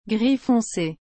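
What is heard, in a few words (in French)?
"gris foncé", French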